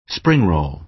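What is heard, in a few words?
"spring roll", American English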